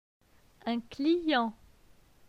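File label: "Un client", French; phonetique; les voyelles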